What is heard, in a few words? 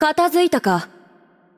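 voice over, Japanese; Game Outro; bloom minigame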